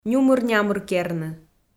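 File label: "нюмыр-нямыркерны", Komi, female; kpv audio dict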